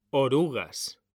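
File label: "orugas", Spanish; mekanta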